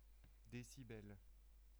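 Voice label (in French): "decibel", French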